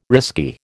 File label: "risky", American